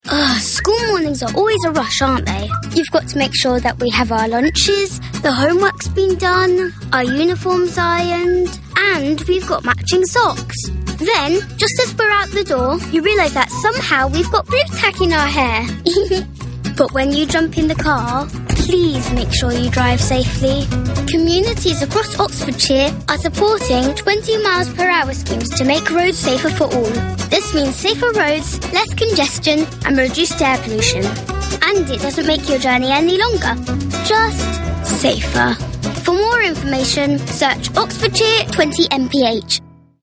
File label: child vo 9 y.o. girl